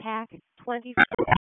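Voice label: Police Spec Ops Tac, radio; Raleigh; wake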